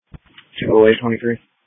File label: Police Tactical Encrypted; sdr